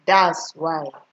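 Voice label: "daswhy", Nigerian Pidgin, female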